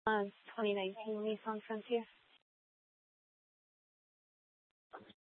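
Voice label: Police Department Dispatch, scanner; Mesa PD; 3 Red Mnt Dispatch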